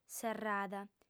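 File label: "serrada", female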